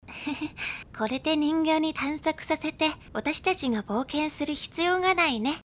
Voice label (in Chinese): 少女音